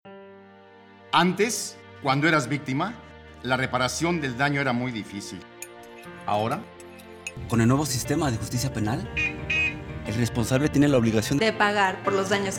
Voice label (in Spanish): Spot Radio; SETEC; Reparación del daño